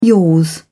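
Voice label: "yours", British English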